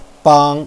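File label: "bang", Mandarin Chinese, first tone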